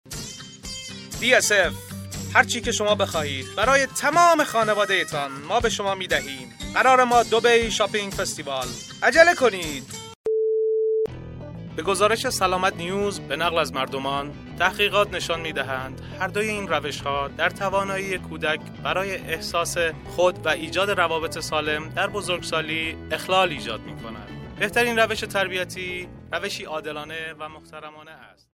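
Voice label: farsi male commercial; voice demos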